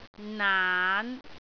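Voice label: "naan5", Cantonese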